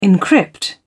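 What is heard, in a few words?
"encrypt", British English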